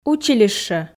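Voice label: "училище", Komi, female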